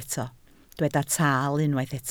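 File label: "taal" Welsh, spoken word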